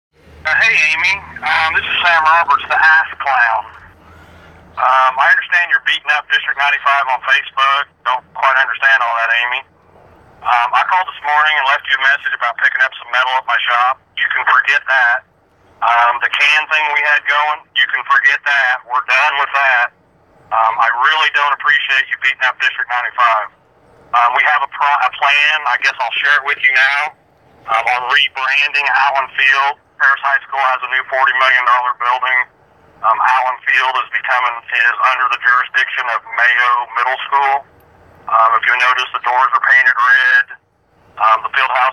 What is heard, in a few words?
Voicemail